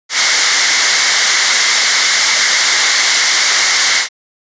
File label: Noise Labio Dental